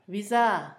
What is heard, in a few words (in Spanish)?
ejutla, Zapoteco, Asunción Ocotlan; Topónimos; INALI